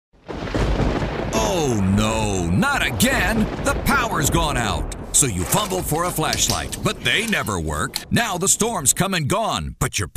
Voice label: Infomercial; Infomercial Demo

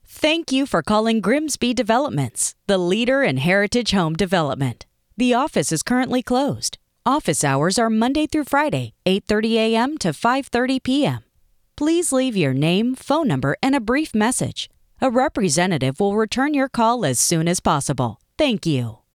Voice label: IVR

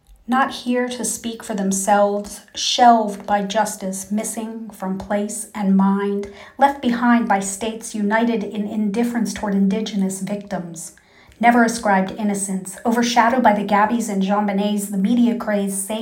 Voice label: poem

